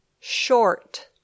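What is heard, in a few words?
"short", English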